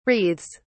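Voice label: "wreathes", English, British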